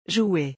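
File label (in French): "jouer", French; vocabulaire; le lexique des vacances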